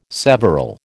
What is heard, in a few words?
"several", American